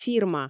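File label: "фирма" Komi, female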